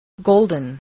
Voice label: "golden", spelling